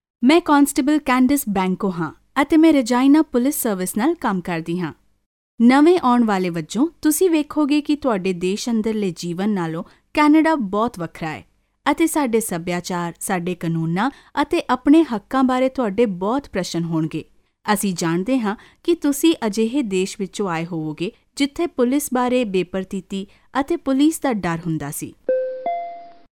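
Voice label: Punjabi female elearning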